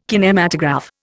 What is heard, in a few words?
"kinematograph", Indian